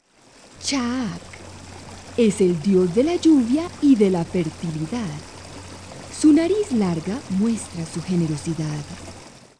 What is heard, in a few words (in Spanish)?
narracio, espanol; Dioses Mayas; CUENTO